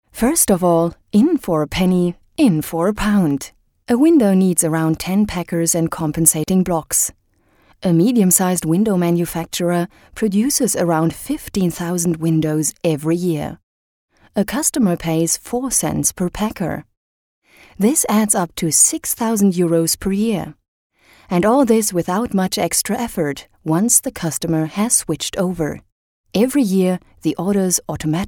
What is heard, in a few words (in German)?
englisch; roto frank